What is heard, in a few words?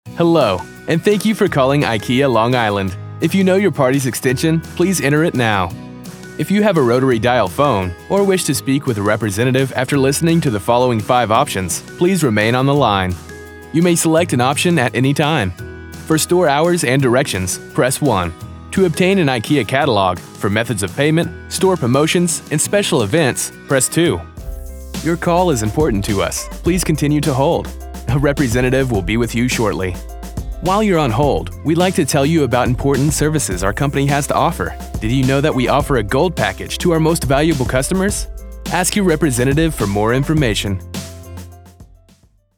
Telephony